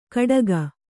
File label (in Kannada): "ಕಡಗ", Kannada